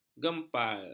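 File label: "gempal", Malay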